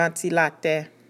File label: "ventilate", Haitian Creole